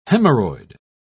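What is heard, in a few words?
"hemorrhoid", British English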